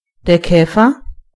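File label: "beetle", German; gcompris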